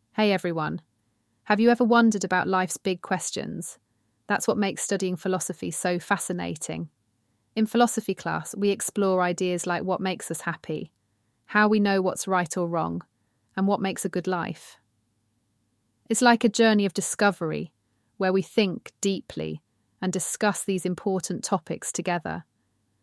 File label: British woman; Philosophy